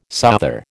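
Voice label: "souther", American English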